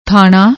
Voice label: "thanna", Punjabi; ਥਾਣਾ